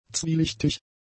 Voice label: "zwielichtig", German; adjectives

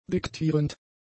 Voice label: "diktierend", german; adjectives

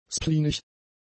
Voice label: "spleenig", German; adjectives

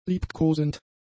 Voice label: "liebkosend", German; adjectives

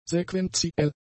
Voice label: "sequenziell", German; adjectives

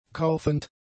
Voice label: "kaufend", German; adjectives

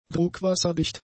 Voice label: "druckwasserdicht", German; adjectives

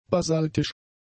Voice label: "basaltisch", German; adjectives

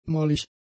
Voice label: "mollig", German; adjectives

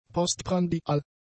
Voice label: "postprandial", German; adjectives

